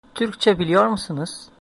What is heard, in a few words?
do you speak, turkish